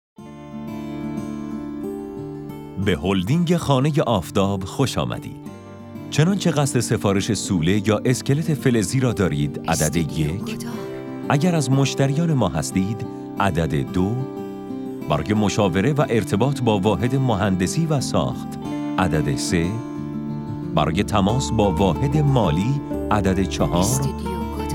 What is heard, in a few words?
IVR